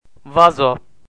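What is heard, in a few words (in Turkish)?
"vazo", Turkish, male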